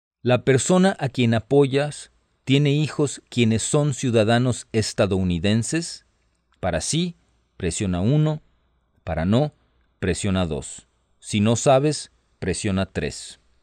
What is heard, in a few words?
Spanish, hotline